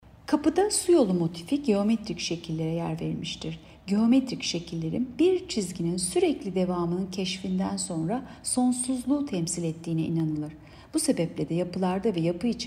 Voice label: turkce